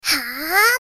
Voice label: Atack Voice